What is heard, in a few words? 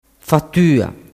"fattua", dialettu